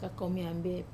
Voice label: "kakomi ambe", banda mace, wamesa